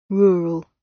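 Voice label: "rural", British English; Language, linguistics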